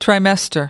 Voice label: "trimester", us